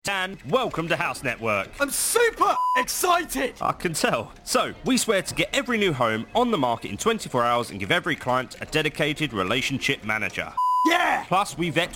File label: Radio